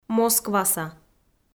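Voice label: "москваса" Komi, female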